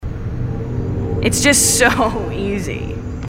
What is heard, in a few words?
female marines